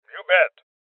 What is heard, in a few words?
Voices Confirmation Male Old; rebel cops 2019 gamerip